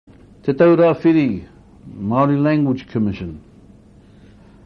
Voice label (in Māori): "te taura whiri", maori words